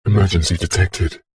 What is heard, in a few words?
science fiction computer console voice robotic male says "emergency detected"; zapsplat